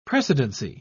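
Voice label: "precedency", British English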